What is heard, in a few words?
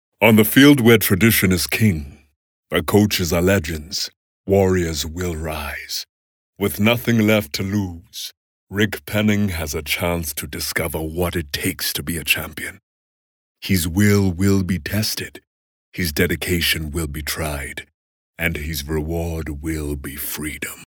English South African, Male